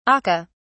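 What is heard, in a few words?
"akka", British English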